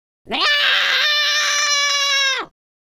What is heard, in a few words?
scared, Scotsman; speech